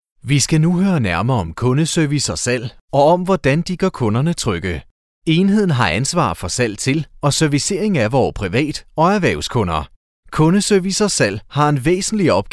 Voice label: E-learning Corporate